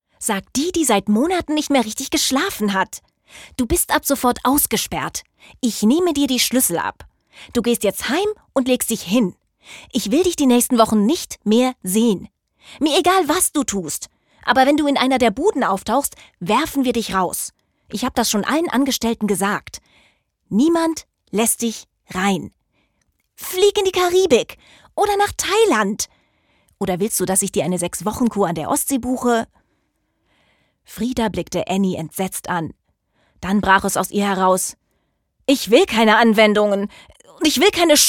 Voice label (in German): Schmetterlinge im Bauch Hoerbuch Stimmprobe